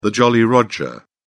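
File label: "jolly roger", British English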